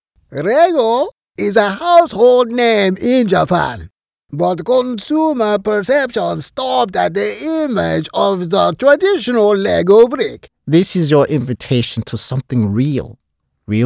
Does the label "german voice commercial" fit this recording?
No